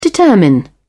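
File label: "determine", British English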